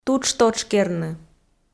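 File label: "тутш-тотшкерны", Komi, female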